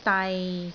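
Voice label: "dai6", Cantonese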